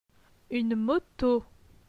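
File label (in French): "Une moto", French; phonetique; les voyelles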